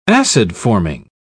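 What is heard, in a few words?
"acid-forming", British English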